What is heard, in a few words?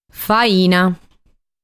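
"Faina", Sardinian word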